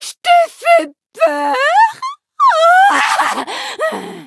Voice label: levelup, fr; Cookie Run

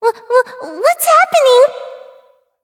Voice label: victory, en; cookie run

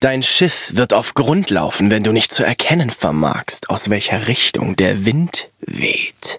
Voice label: loading, de; Cookie Run

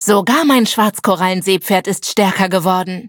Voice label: level up, de

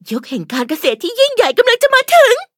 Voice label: level up, th; cookie run

